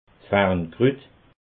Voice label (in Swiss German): "farnkrut", alsace